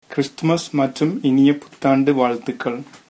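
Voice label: christmas, male, tamil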